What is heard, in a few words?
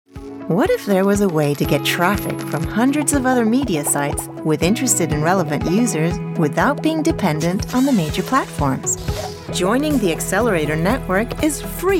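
swedish english commercial, Swedish Female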